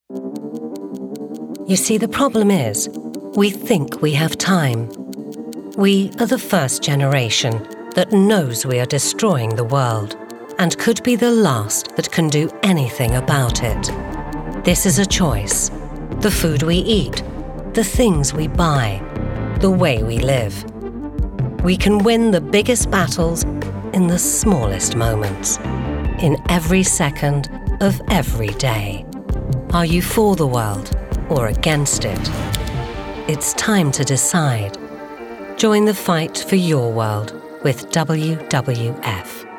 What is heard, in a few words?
charity reel